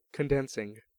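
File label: "condensing", American English; pronunciations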